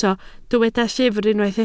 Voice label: "llyfr", Welsh

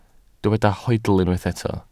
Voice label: "hoedl", Welsh

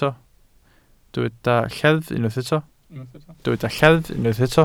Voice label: "lleddf", Welsh

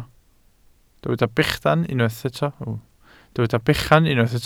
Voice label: "bechan", Welsh

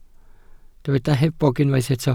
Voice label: "hebog", Welsh

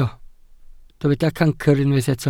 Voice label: "cancr", Welsh

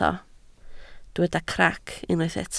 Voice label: "crac", Welsh

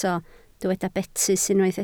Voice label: "betys", Welsh

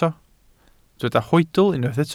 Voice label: "hoedl", Welsh

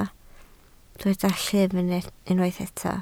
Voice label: "llif", Welsh

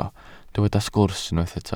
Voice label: "sgwrs" Welsh

